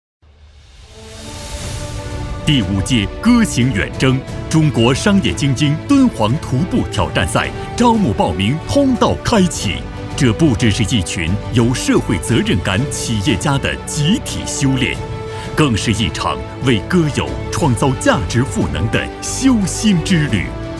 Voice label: 男 宣传片 大气; 戈行远征

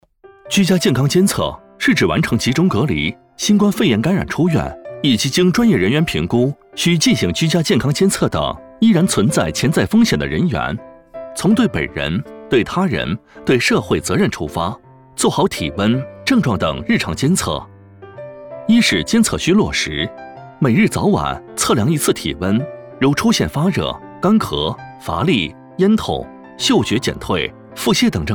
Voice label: 男 MG 居家监测

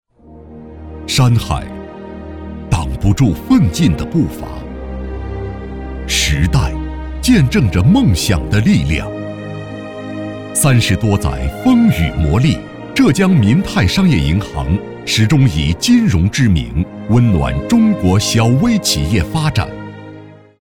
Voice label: 男 企业宣传; 民泰银行

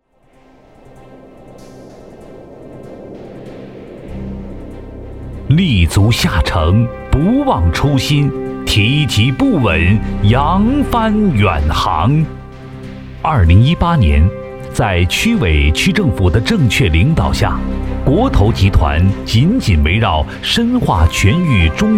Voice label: 男 企业宣传; 国投集团

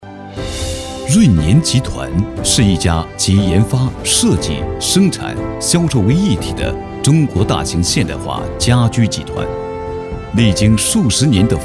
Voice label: 男, 宣传, 企业专题; 润年集团

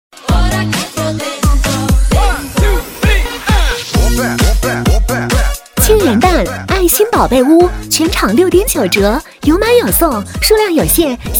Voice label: 女 促销; 爱欣宝贝屋

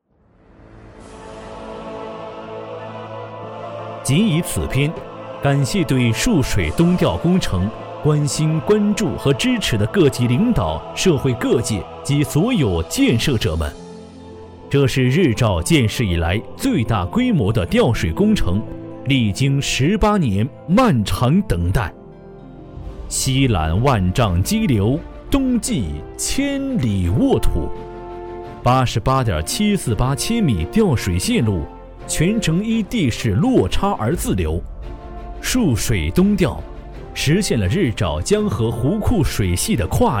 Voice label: 男 政府汇报片; 沭水东调工程

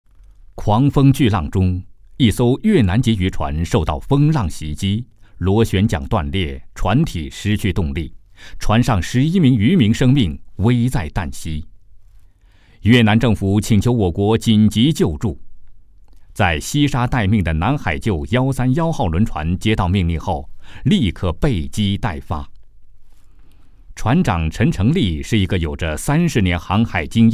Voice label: 男 讲述 紧急, 国语男声配音

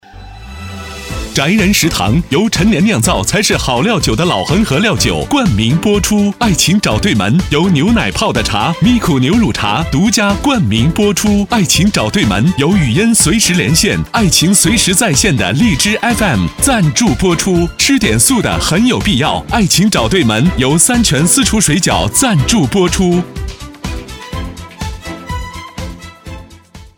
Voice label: 男 宣传片 年轻激情; 宅人食堂

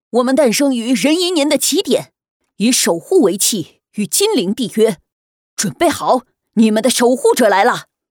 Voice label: 两个角色配男童试音

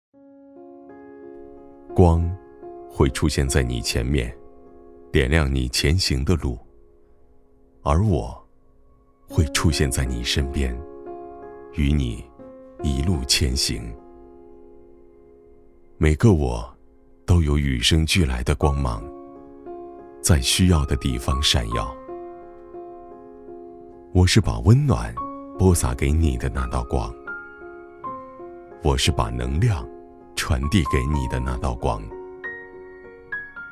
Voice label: 男 广告; 浙商银行杭州分行

